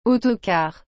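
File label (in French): "autocar", French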